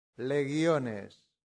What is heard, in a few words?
"legiones", latin; santillana